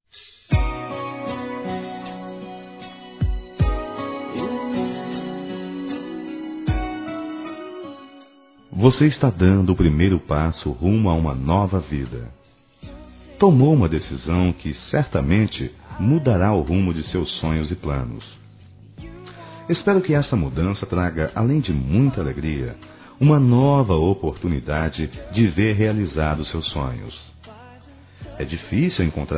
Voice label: Noivado Masculino

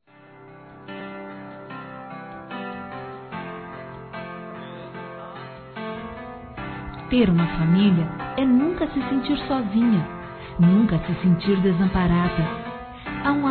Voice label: Irmão (ã) Feminino; Aniversários; Aniversários diversos

